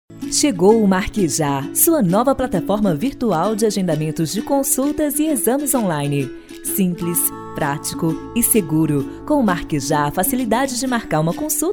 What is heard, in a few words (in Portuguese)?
Piloto produzido Locutora